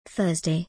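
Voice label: "Thursday", British English; days-of-the-week-in-english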